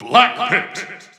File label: Japanese Announcer; Dark Pit; SSB4; SSBU